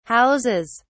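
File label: "houses", British English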